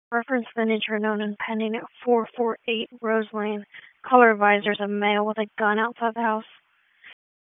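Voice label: Raleigh Police Southeast District Dispatch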